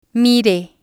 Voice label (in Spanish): "mire", spanish